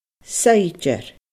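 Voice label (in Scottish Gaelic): "saighdear", Scottish Gaelic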